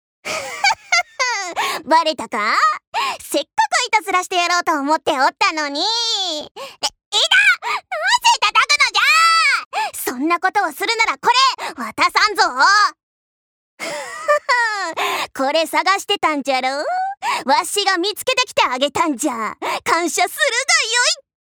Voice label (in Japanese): アニメ